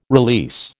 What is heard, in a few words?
"release", American